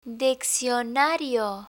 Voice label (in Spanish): "diccionario", Spanish